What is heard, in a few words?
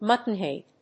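"muttonhead", English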